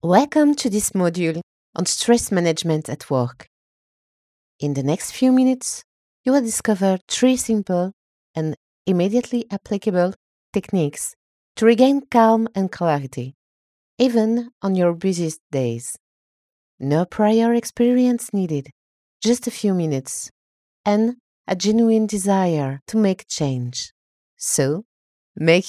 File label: E-learning Well-being EN